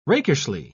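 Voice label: "rakishly", British English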